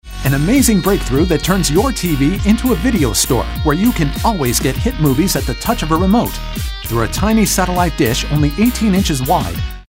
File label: Television